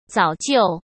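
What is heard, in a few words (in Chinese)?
"早就", Mandarin Chinese; hsk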